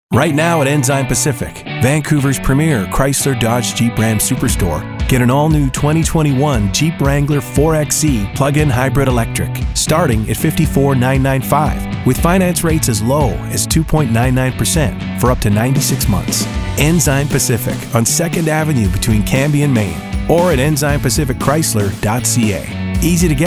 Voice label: car commercial